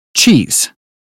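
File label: "cheese", British phonetics; 英语音标发音（剑桥版）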